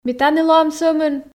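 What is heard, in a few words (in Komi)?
ми тані лоам сӧмын, Komi, female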